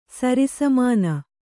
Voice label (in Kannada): "ಸರಿಸಮಾನ", kannada